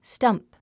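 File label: "stump", English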